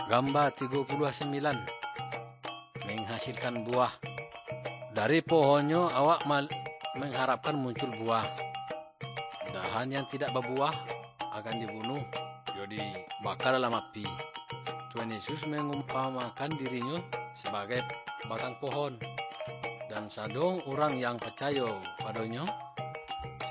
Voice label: good news minangkabau muko muko; kaba ilo